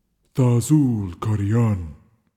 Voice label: incantation masculine reverb help; psfx